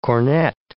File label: "cornet", English